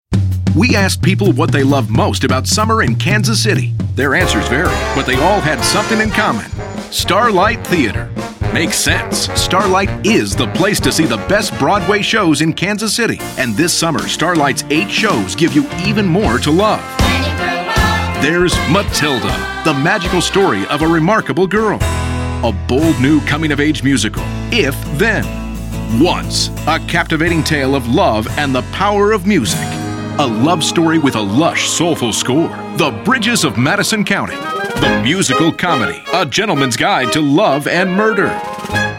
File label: commercial; starlight theatre 2016